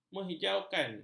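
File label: "menghijau", Malay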